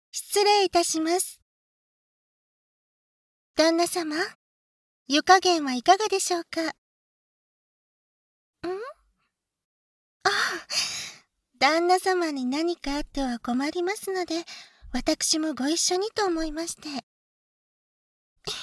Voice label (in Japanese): 雨の中温泉でまったりと（囁き重視編集 効果音なし）